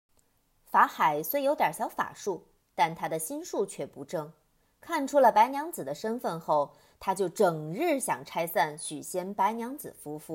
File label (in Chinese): 小故事配音